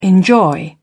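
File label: "enjoy", British English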